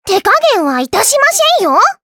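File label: Vox Skill Japanese; Taily; kings raid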